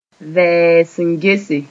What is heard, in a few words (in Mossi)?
"vɛɛs n gese", moore